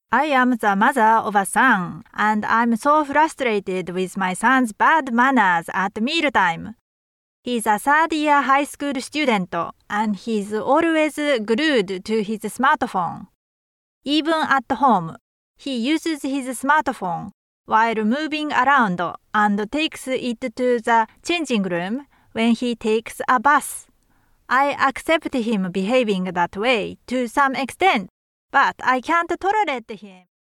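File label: Japanese English female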